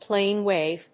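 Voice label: "Plane wave", English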